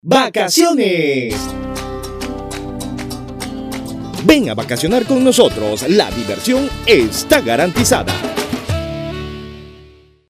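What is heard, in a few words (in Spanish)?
publicidad vacaciones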